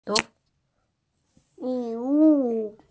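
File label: "li3wu4", Mandarin Chinese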